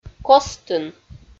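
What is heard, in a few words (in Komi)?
"костын", Komi-Zyrian, female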